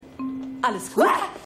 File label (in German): Flugbegleiterin Lounge; The Flight Attendant